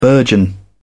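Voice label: "burgeon", British English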